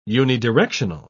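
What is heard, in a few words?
"unidirectional", British English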